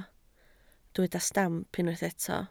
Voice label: "stamp", Welsh